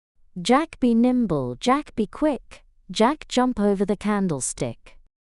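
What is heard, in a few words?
Jack be Nimble, female British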